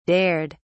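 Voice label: "dared", British English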